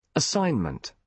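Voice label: "assignment", British English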